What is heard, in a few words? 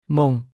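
"មុង", Khmer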